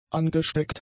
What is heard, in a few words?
"angesteckt", German; adjectives